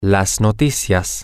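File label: male, spanish; the News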